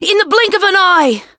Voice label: Voice variable chant; felmina